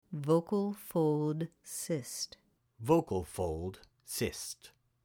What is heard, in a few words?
Vocal Fold Cyst